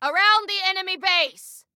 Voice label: Enemy Around Enemy Base, Female